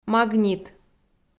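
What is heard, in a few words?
"магнит" Komi, female; kpv audio dict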